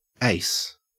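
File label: "ace", Australian English; pronunciation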